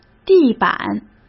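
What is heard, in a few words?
"地板", Mandarin Chinese; chinese flashcards; hsk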